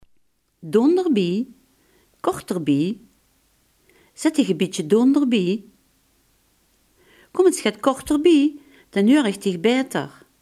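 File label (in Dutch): "dichterbij" Limburgish dialect word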